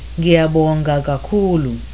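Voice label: thank you, Zulu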